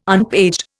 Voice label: "unpaged", Indian